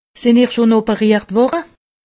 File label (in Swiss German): "operiert", alsace; medecine